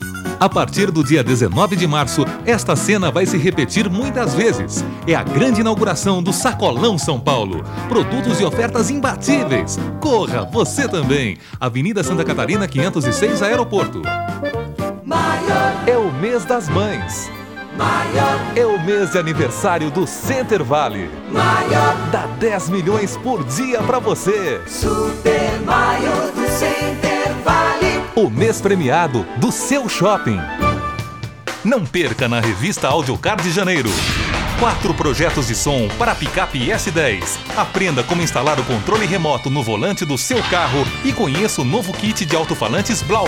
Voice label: Hard Sell